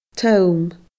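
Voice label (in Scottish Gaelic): "tom", Scottish Gaelic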